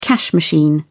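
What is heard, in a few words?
"cash machine", British English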